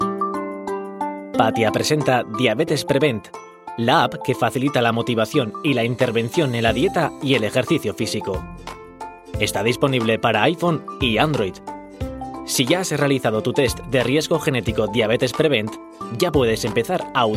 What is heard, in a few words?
basque documentaries